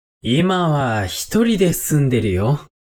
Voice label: man